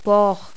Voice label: velar fricative, toda

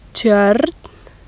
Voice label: "tjoern", icelandic

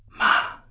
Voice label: tone whisper, mandarin tones

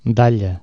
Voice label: scythe, Catalan Consonants

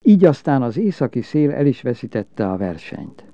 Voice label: narrative, hungarian

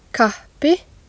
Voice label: "kappi", icelandic

